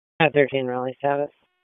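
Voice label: Raleigh Police Southeast District Dispatch, p25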